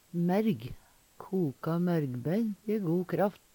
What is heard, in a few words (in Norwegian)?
merg, Numedalsmål; Substantiv hankjønn